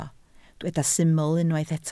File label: "syml", Welsh